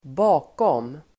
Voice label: "bakom", Swedish